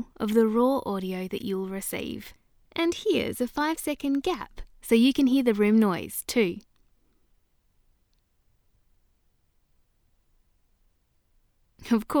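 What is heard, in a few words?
Studio Sound Check, female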